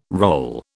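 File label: "role", British English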